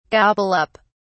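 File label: "gobble up", British English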